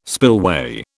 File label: "spillway", British